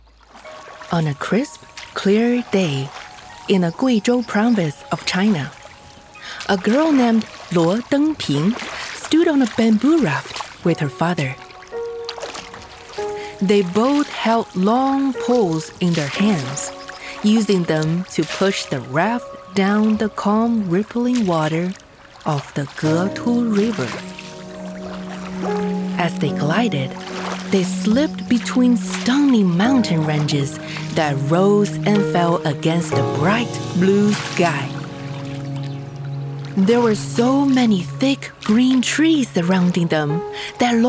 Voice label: women audiobooks; Voice age & print: 0-99. Calm, firm, strong, elegant, innocent, evil, bouncy, dramatic.